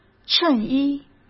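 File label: "衬衣", Mandarin Chinese; chinese flashcards; hsk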